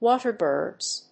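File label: "Water Birds", English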